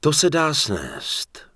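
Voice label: Human Male error no ammo, Czech; wowpreklad; czwow; MaleErrorMessages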